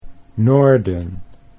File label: "noorden", Dutch